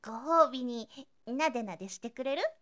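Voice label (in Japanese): "gohoubininadenade", Japanese boy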